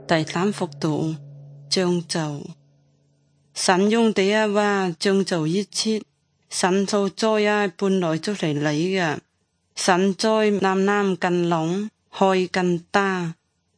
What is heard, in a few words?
good news zhuang guigang guiping